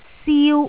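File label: "siu1", Cantonese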